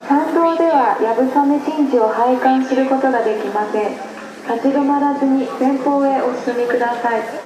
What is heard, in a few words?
loudspeaker announcement female Japan; lynne publishing